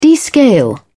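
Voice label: "descale", British English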